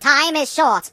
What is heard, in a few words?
"Hurry", British; hedgewars